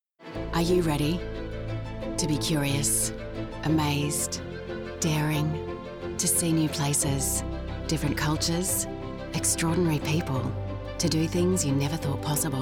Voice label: Adventure Spot; Peregrine

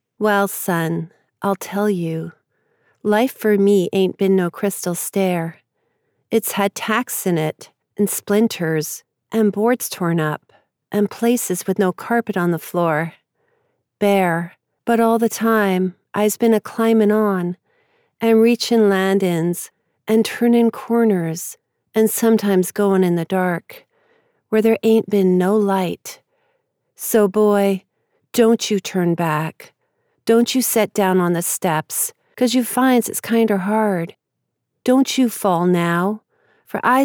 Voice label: poem

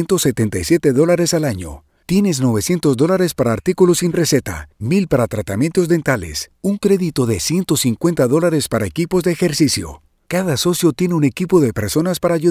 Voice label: Health Plan Radio Spots; Devoted

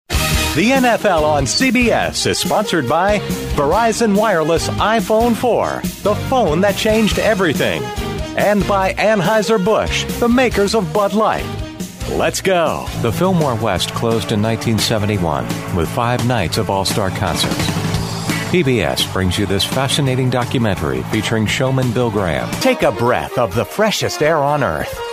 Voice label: Promo

